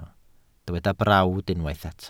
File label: "brawd", Welsh